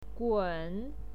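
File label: "gun", Mandarin Chinese pinyin, third tone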